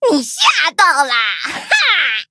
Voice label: levelup zh-hant; cookie run